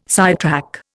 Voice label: "sidetrack", Indian